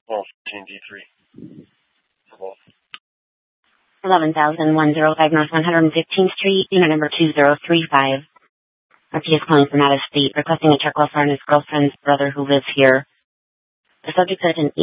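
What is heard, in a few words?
police dispatch, Scottsdale PD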